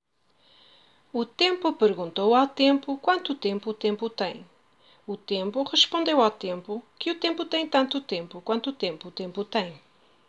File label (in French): virelangue le temps